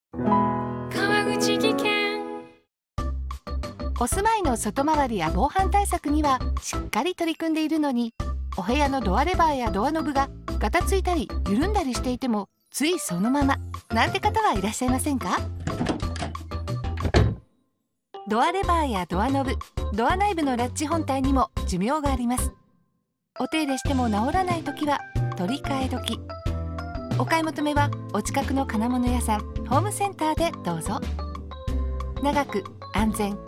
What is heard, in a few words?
ad radio; leverhandle maintenace